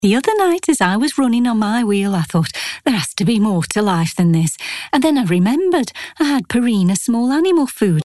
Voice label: yorkshire accent